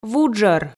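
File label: "вуджӧр", Komi, female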